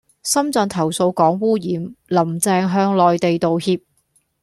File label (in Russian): Гонконгский; commonvoice